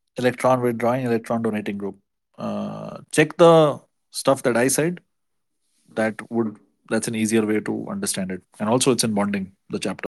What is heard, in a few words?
voice message